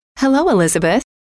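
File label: "hello", female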